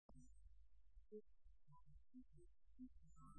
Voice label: one of the staff who sit on the 'cabins' dings a 'bell' twice, then over a PA system a recorded voice says "Doors closing, please stand clear"; metro